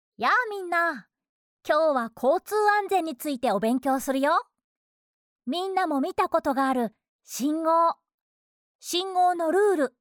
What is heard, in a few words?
female